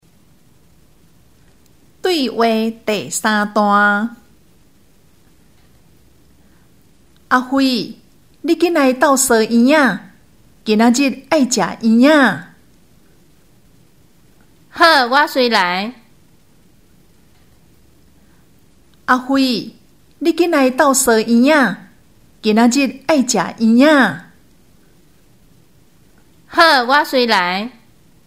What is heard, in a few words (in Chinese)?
對話, 閩南語; 閩南語語言能力認證; 閩南語能力測驗題本